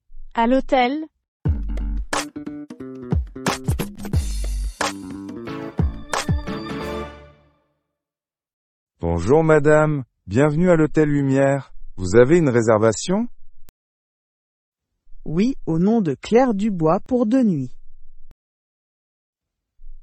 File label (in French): Dialogue a l hotel Reception et Rencontre Surprise Niveau A2 Francais; daily conversations listen and repeat french dialogues